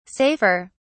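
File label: "safer", English, British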